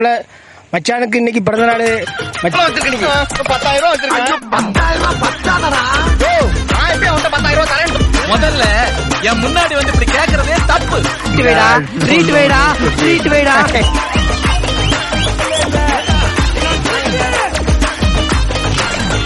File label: friendship funny birthday wishes tamil dialogue comedy